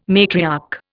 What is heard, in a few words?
"matriarch", Indian